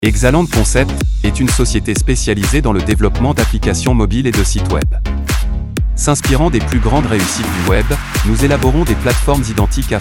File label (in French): pub homme; ExalandConcept